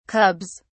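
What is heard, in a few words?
"cubs", British English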